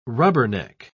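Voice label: "rubberneck", British English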